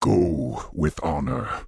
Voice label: Orc Male Guard Farewell

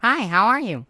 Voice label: Gnome Male Young Greeting; NPC

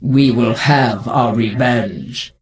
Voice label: NPC Death Knight Female Low Farewell Free

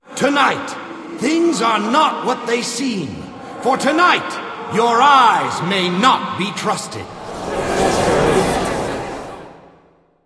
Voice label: Announce; Barnes The Stage Manager

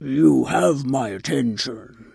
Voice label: Tuskarr Female Greeting; Magic User

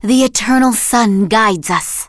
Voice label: Blood Elf Female Standard Greeting

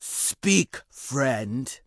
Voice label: Orc Female Standard NPC Greeting

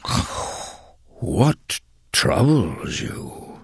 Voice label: Tuskarr Male Greeting; Warrior

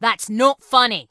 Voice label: Dwarf Female Guard NPC Pissed

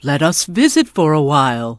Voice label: NPC Tuskarr Female Greeting; Warrior

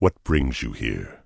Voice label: Tauren Male Warrior NPC Greeting

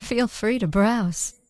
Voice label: Night Elf Female Standard Vendor

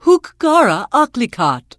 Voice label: NPC Tuskarr Female Farewell; Warrior

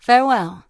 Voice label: Human Female Warrior NPC Farewell

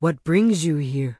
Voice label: Tauren Female Official NPC Greeting

